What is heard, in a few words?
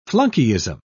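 "flunkyism", British English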